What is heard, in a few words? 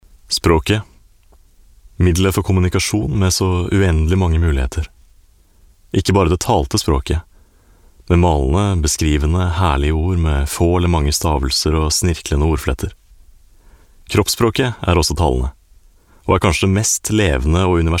narration